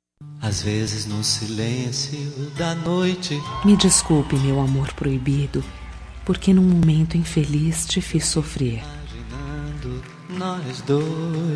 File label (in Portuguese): Romântica Com Desculpas pra Amante, F